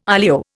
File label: "olio", Indian